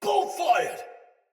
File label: Shoot Arrow Soldier Sword Agent Male, Battle Barks; Sinecure Melee Sword Agent